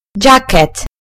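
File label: "jacket", British English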